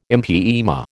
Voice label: "empyema", American

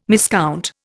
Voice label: "miscount", Indian

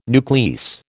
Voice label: "nuclease", American

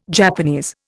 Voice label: "Japanese", Indian

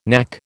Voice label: "knack", American

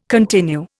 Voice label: "continue", Indian

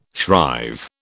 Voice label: "shrive", British

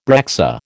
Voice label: "breccia", American

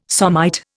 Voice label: "somite", Indian

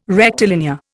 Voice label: "rectilinear", Indian

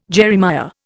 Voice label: "Jeremiah", Indian

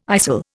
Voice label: "istle", Indian

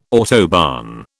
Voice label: "autobahn", British

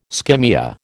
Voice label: "skimmia", American

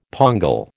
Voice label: "pongal", American

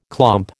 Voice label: "clomp", American

